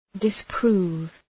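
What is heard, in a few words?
"disprove", English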